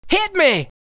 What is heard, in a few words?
"Hit Me", vocal phrases; Ultimate Vocals